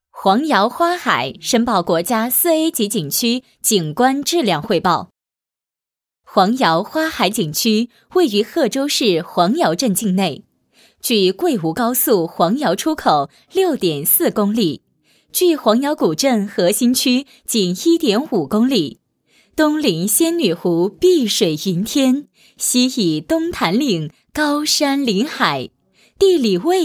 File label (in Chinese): 女 汇报; 黄姚花海创建4A景区; 方言